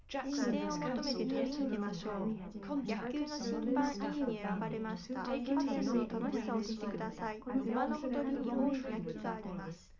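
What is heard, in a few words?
female, live recording, 250 ms, 5 cm, mix; SiSEC11; underdetermined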